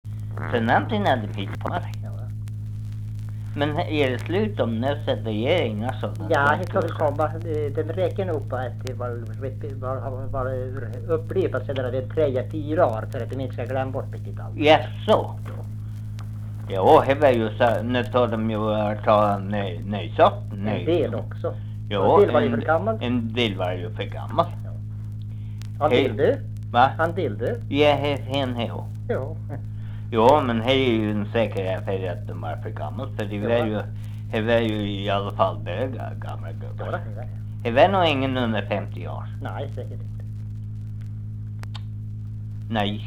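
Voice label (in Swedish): Norsjö Västerbotten, dialekter; Folkminnen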